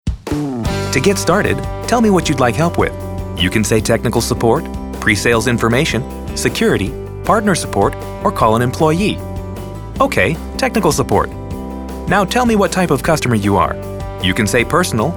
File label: IVR